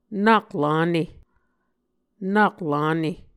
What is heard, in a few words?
"nak lane", Muscogee